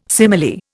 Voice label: "simile", Indian

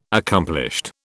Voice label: "accomplished", British

